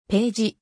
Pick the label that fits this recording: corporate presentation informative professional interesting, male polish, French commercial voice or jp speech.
jp speech